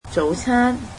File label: cantonese breakfast